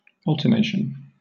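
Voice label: "alternation", British English; pronunciations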